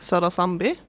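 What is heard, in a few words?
Södra Sandby, Swedish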